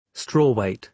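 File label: "strawweight", British English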